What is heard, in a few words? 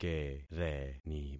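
"kereni", concatenated syllables; Affixing experiment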